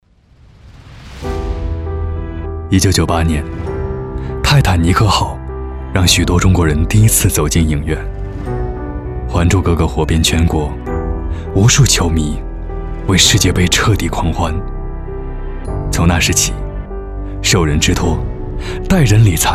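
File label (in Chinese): 男, 走心解说, 普通话; 泰坦尼克号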